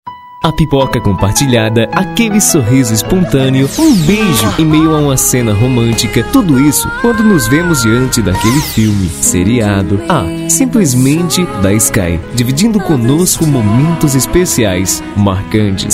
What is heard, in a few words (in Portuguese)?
spot jovem sat sky romantico